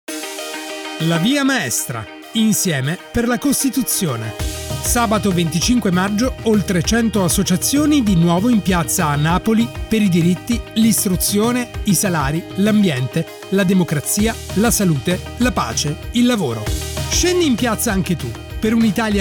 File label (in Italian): Spot RADIO